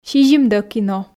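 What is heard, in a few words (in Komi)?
"кино", Komi, female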